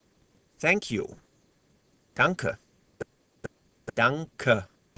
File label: German Thanks